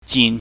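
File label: "jin", Cantonese, mid level tone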